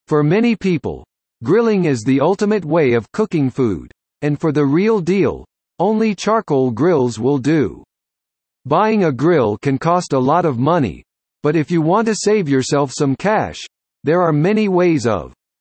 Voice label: tutorial example